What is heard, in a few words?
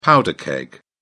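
"powder keg", British English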